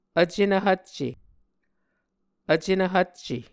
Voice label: "Vcenv Hvcce", Muscogee; Mvskoke Words with Repeated Consonants; Onvkv Ohhvyayices; A Dictionary of Creek/Muskogee; SIL International